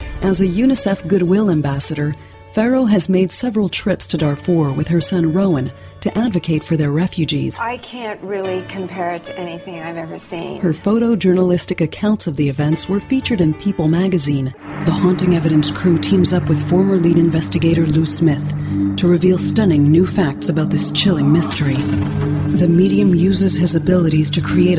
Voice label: Narration Film Tele